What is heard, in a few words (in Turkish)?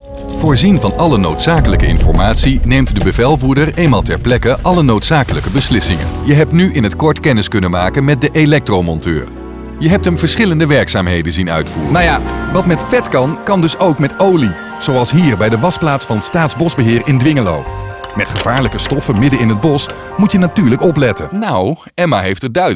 Hollanda Erkek Felemenkce; seslendirme